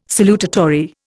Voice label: "salutatory", Indian